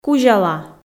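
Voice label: "кузяла", Komi, female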